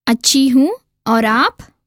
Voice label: Conversation